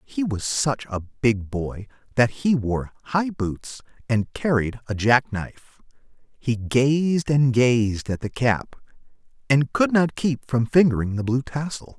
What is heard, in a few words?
read speech